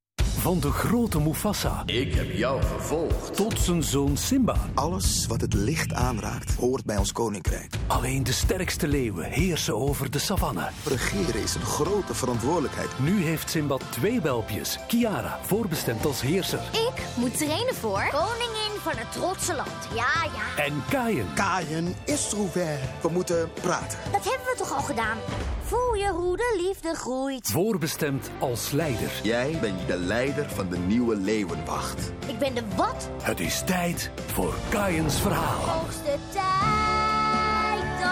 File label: Imaging